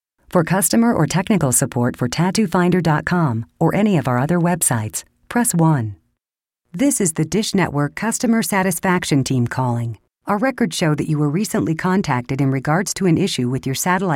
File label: On Hold IVR